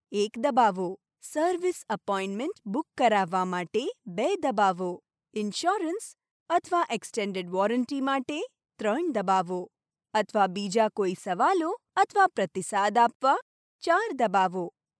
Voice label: female gujarati voice over narrative